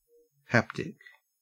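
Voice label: "haptic", Australian English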